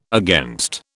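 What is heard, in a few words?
"against", British English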